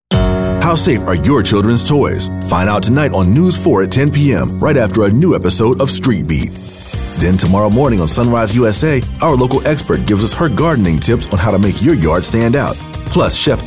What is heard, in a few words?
Promo